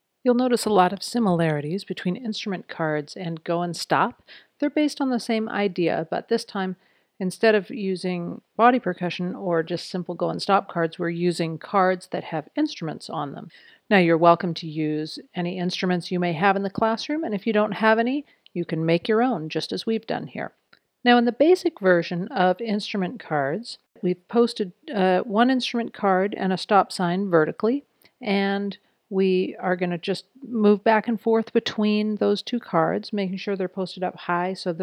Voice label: audio commentary and implementation tips; EASE: Unit 3; instrument cards master the basics